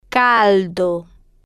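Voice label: "caldo", slow, Spanish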